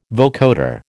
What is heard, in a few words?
"vocoder", American